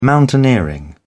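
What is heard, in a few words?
"mountaineering", British English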